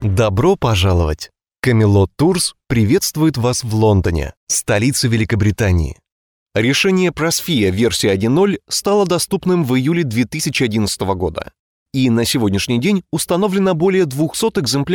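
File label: Russian narration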